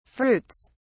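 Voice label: "fruits", British English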